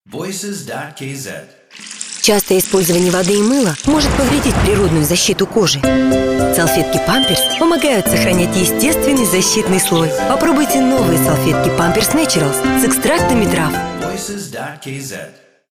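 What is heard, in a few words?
Russian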